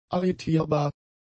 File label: "arretierbar", German; adjectives